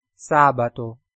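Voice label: "sabato", Italian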